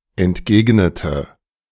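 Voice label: "entgegnete", German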